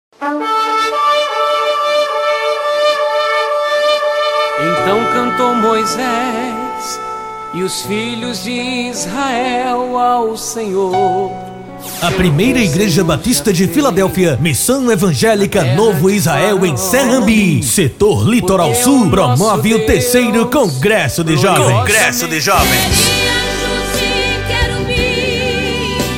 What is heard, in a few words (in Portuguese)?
locuções